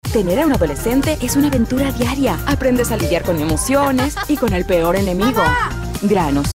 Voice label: Protex Advice Natural Assertive